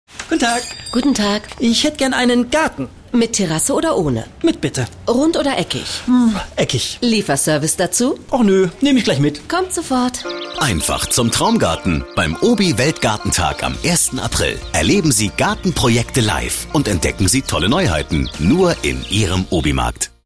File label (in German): Fremdtake Freundlich